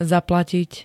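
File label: "zaplatiť", Slovak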